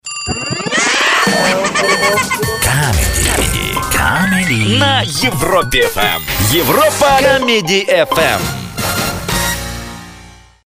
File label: EUR COMEDY